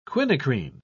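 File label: "quinacrine", British English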